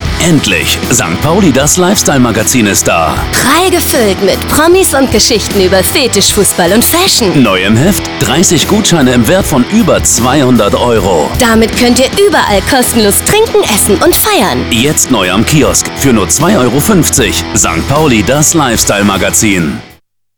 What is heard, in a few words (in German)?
SPRACHE jugendlich, frisch